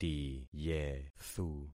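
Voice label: "tiyethu", concatenated syllables; Affixing experiment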